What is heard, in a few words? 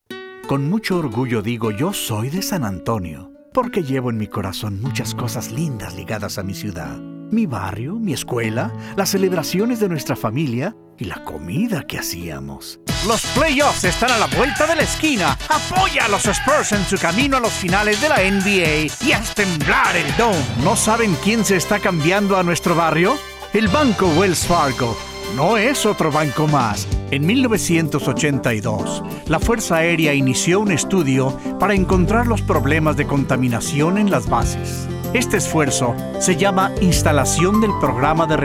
spanish males